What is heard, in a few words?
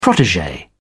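"protege", British English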